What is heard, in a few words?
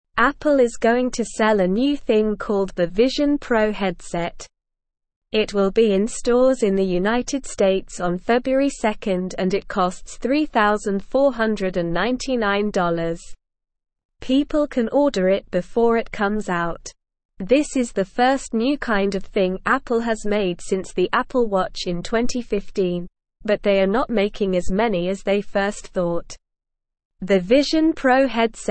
English SLOW Reading; Apples New Vision Pro A Cool Head Thing; English Newsroom